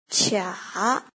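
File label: "qia", pinyin, second tone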